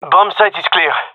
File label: bombsite clear, gendarmerie male; vo